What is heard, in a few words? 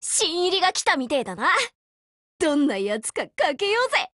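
build over, Japanese; HK512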